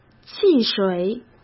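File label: "汽水", Mandarin Chinese; chinese-flashcards; hsk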